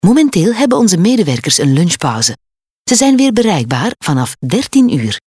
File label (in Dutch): ivr vlaams